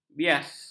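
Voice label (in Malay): "bias", Malay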